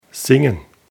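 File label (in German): "singen", German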